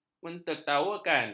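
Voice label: "mentertawakan", Malay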